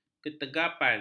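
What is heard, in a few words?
"ketegapan", Malay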